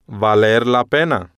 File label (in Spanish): "valer la pena", Spanish